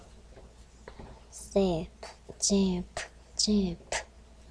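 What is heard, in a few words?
"zip", spoken word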